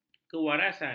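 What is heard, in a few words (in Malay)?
"kewarasan", Malay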